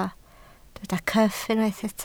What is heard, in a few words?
"cyff", Welsh